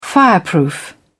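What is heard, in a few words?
"fireproof", British English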